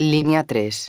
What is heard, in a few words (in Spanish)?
linea 1, megafonias; exterior